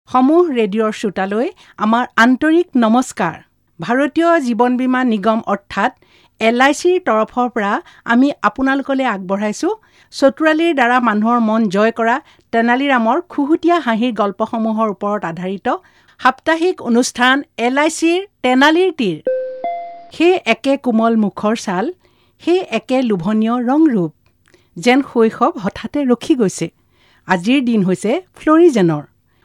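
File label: Assamese, General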